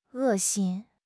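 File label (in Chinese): "恶心", Chinese; HSK6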